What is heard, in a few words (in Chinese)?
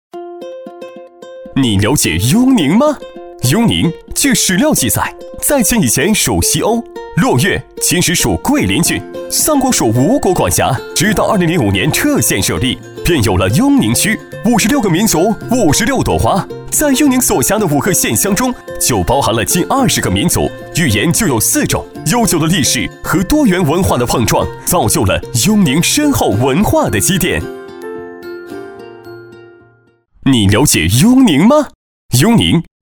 男 城市介绍